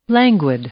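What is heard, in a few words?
"languid", English; adjective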